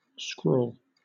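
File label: "scrawl", British English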